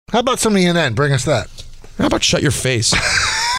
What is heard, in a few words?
funny